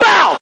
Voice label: "bow", Voice

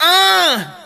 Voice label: "uh", male

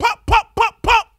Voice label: "pop pop pop pop", voice; ooh ahh

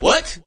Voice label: "what" shocked

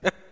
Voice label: "heh", Voice; ooh ahh

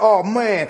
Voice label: "aw man", Voice; ooh ahh

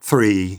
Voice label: "three" announcer; numbers and letters

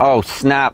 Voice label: "oh snap", male; expletives

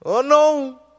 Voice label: "oh no" chill, Voice; ooh ahh

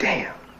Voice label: "damn", expletives

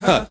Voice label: "huh", Voice

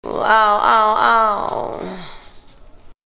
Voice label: ow ow ow female; ooh ahh; ow ouch

